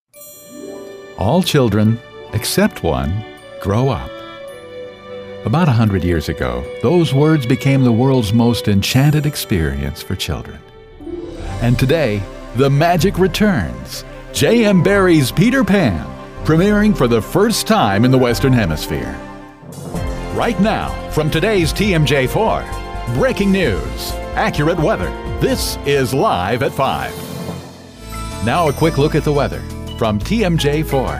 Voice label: Promos